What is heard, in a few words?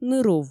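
"нырув", Komi, female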